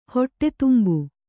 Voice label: "ಹೊಟ್ಟೆತುಂಬು", Kannada

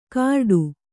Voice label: "ಕರಡು", Kannada

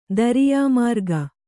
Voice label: "ದರಿಯಾಮಾರ್ಗ", kannada

